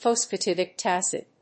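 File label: "phosphatidic acid", English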